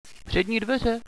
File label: front door, radio bot